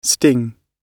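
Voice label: "sting", British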